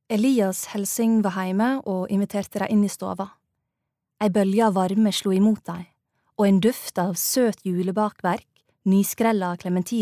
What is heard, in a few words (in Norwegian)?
barnebok, norwegian, female